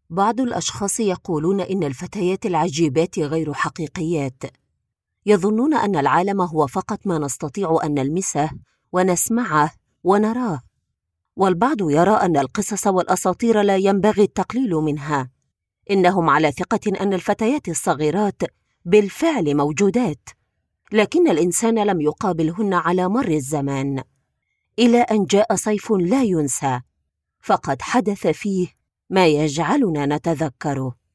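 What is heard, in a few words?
female, Egyptian Arabic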